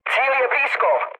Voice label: near waypoint, speech cze, mood low; War Thunder

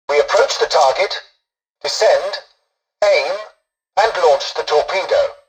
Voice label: tutorial dialogue, english; War Thunder

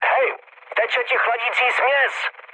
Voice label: damaged water, speech cze, mood low; War Thunder

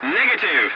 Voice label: voice message no, english; War Thunder

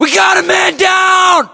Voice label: reaction casualty generic, US; localized english; battlechatter

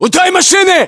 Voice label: landmark near car, battlechatter ru

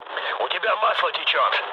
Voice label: damaged oil, mood low, speech ru; War Thunder

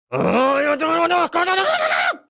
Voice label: Speech Quick Commands Caramba

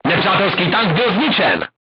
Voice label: voice message target destroyed, crew tanks czech

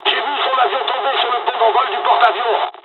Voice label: dialog, dialogs french; midway; War Thunder

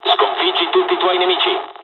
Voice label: tactical fight, dialogs italian; War Thunder

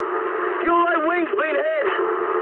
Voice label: eng right wing mood high, speech eng; War Thunder

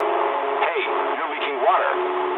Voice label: eng damaged water mood low, speech eng

